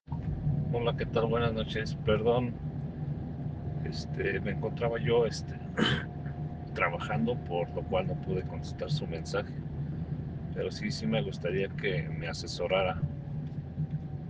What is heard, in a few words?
whatsapp ptt